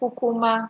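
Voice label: "kukuma", Nigerian Pidgin, female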